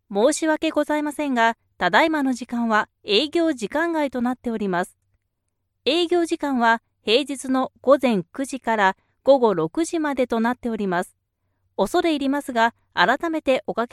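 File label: Japanese IVR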